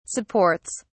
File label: "supports", en uk